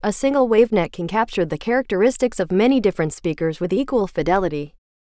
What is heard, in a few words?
us english voice